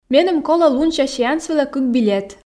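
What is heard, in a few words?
Komi, female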